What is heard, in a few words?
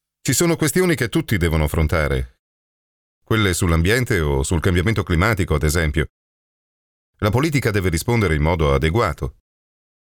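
Italian male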